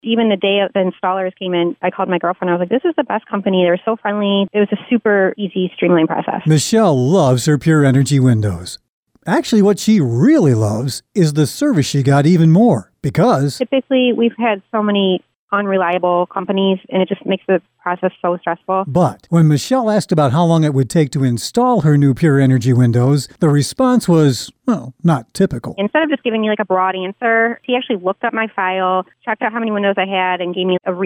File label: Commercial Testimonial